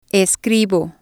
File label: "escribo", Spanish